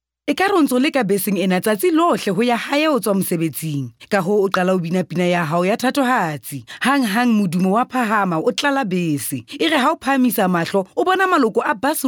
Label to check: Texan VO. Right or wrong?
Wrong